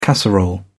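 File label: "casserole", British English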